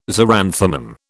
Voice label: "xeranthemum", British